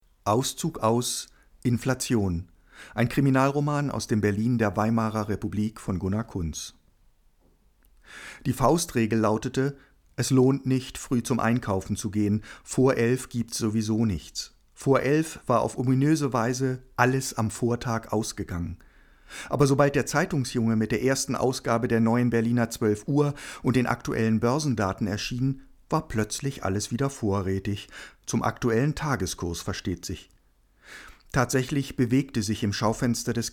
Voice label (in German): Telefonlesung; Leseprobe